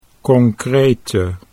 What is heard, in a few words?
"concrete", Dutch